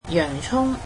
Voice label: cantonese onions